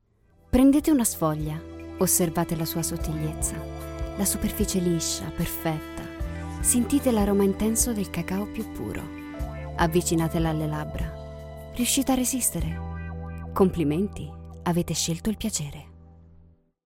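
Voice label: Italian, Commercial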